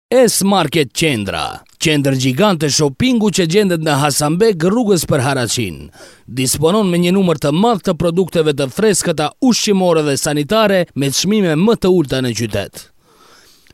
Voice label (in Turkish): Arnavutluk Erkek, arnavutca seslendirme